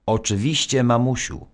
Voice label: narr; Szkola Koziolka; CyberMycha 2003 October

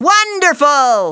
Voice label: WONDERFUL RABBIT, CARTOON VOICES

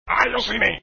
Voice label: taunt ayo; pendekar; Aventura

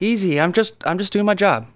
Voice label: THREAT; Messiah

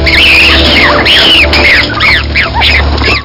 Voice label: oldwoman; Amiga; worms dc; arnie

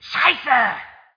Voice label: german; SpecOps2 MPlayer Demo

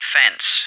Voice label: FENCE, English, CoDriver

